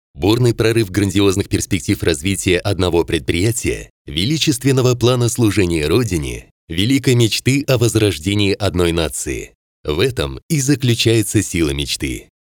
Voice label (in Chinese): 俄语男, 宣传配音, 企业俄语配音; 华意